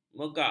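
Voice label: "megak", Malay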